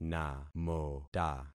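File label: "namota", concatenated syllables; Affixing experiment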